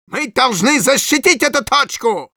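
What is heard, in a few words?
help me defend, Russian; Medic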